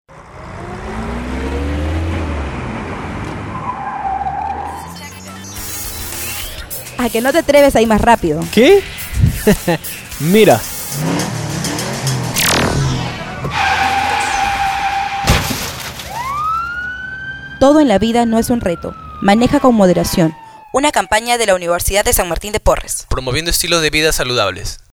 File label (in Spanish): spot educativo